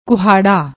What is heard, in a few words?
"kuhaada", Punjabi; ਕੁਹਾੜਾ